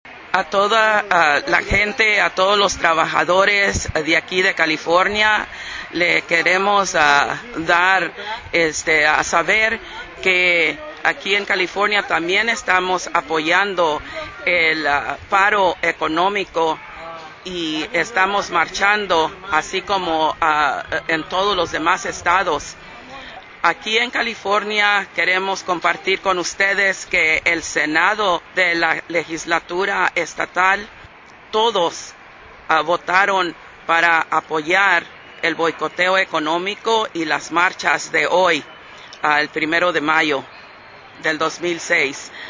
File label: spanish